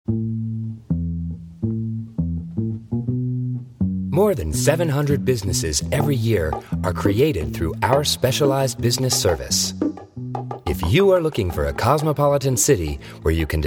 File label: Promo